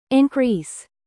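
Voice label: "produce", verb, British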